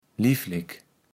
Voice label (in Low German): "liflek", kaevels platt